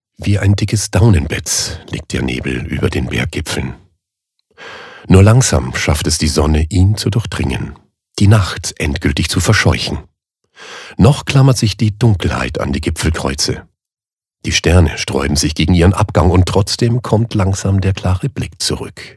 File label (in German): Schauspiel Sanft